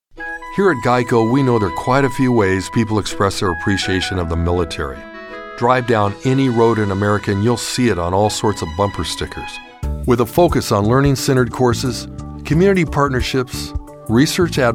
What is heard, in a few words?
Corporate Narr